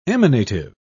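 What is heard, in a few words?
"emanative", British English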